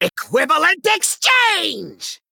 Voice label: Male Goblin Attack

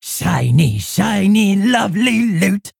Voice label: Female Orc Play

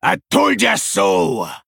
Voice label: Male Troll Attack

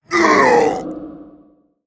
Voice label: Male Vrykul Death

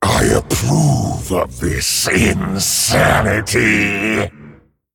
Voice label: Male Dragon Well Played

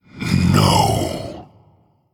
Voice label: Male Human Error Generic

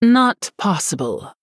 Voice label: Female Human, ERROR GENERIC

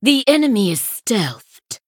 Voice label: Female Sanlayn Emote

